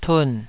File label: "tun3", taiwanese Syllables